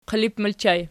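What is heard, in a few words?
"qilip" ergative plural, Archi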